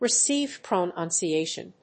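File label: "Received Pronunciation", English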